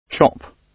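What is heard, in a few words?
"chop", British English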